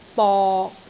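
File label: "bok6", Cantonese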